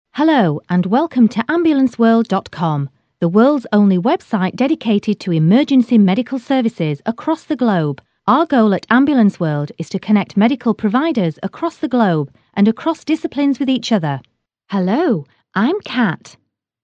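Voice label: British English, female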